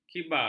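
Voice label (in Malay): "kibar", Malay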